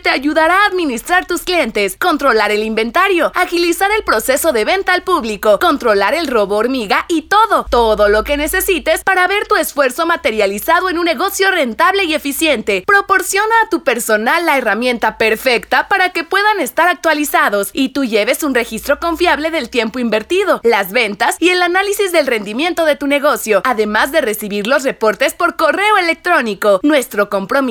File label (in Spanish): compuviper voz off